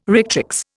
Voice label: "rectrix", Indian